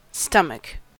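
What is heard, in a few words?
"stomach", American English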